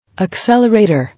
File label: "Accelerator", English